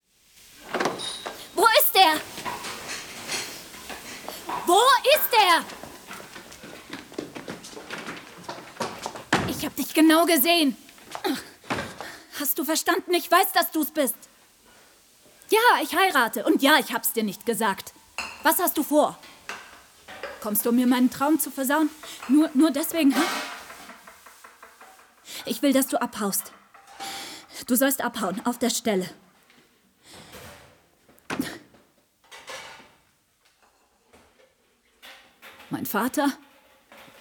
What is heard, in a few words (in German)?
französische Komödie Synchron